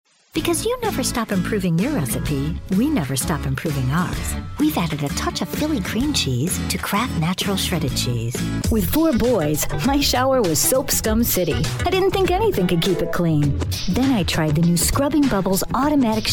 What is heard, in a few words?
Commercial Female; commercial voiceover